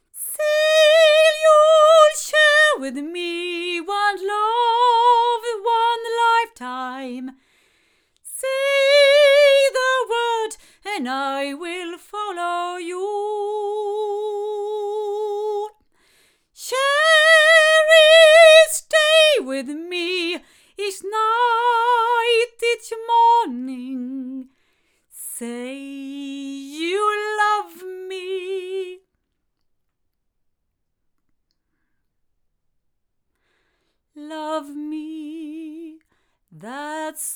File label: female